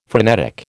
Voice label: "frenetic", American

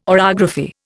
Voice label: "orography", Indian

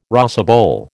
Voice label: "rosebowl", American

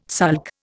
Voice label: "sulk", Indian

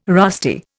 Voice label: "rusty", Indian

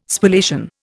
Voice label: "Spallation", Indian

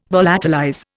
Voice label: "volatilize", Indian

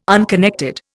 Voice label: "unconnected", Indian

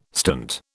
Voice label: "stint", British

